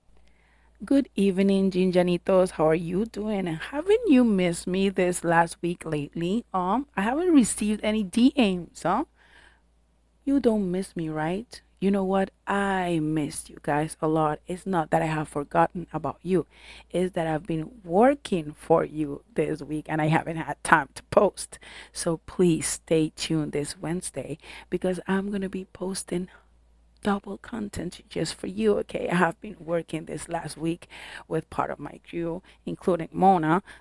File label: voicenote